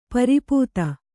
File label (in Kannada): "ಪರಿಪೀತ", Kannada